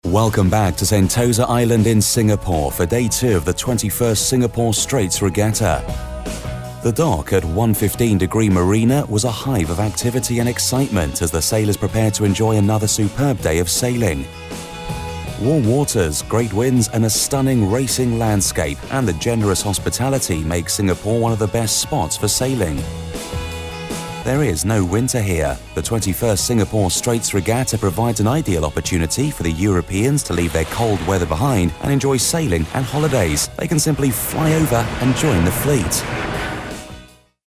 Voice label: english uk male narrative

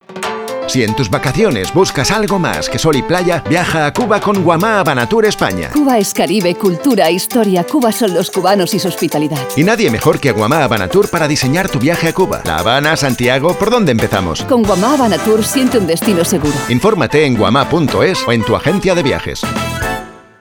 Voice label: spanish spain male commercial

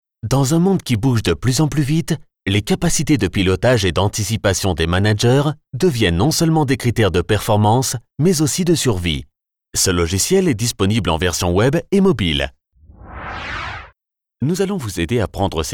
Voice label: french european male narrative

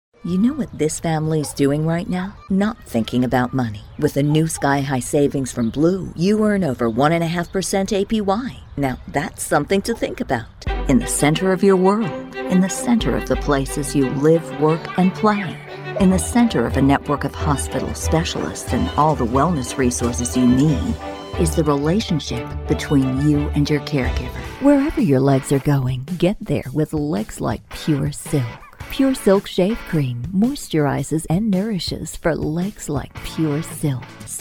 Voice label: english us and canada female commercial

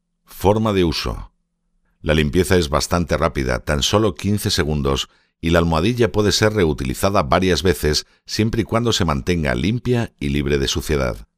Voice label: spanish spain male e learning

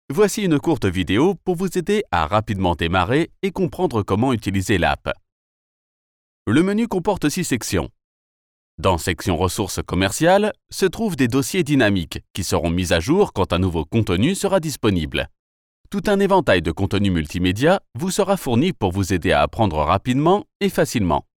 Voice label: french european male e learning

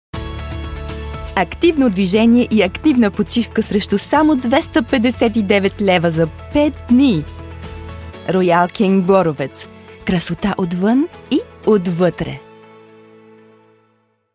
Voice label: bulgarian female commercial

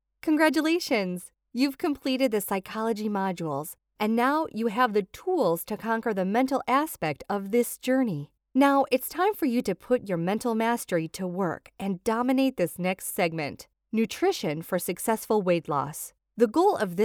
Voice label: english us and canada female e learning

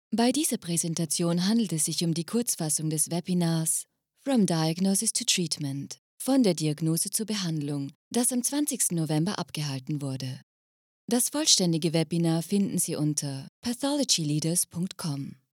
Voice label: german female e learning

